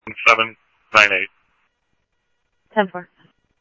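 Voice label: police department, scanner audio trunk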